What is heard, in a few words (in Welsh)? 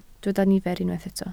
"nifer", Welsh